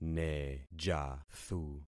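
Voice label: "nejathu", concatenated syllables; Affixing experiment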